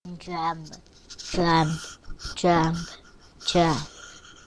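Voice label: "jab", spoken word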